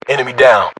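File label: British inform killfirm generic; battlechatter; localized english; uk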